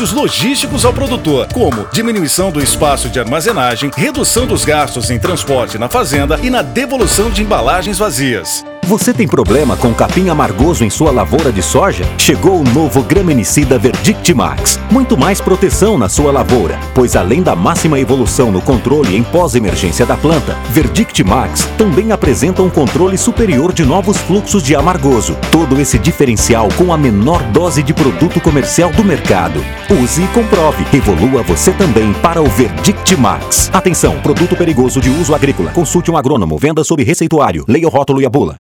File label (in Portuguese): RADIO AGRICULTOR informativo; Corteva